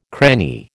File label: "cranny", American